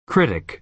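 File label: "critic", American English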